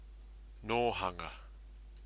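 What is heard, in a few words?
"nohanga", Maori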